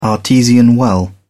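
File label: "artesian well", English, British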